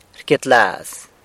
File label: "rcyetlaz", San Lucas Quiaviní; is happy